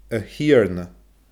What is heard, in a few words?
"a thighearna", Scottish Gaelic